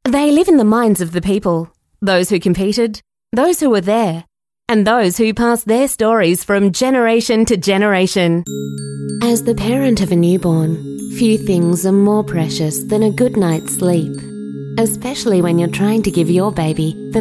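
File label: female, Australian English, corporate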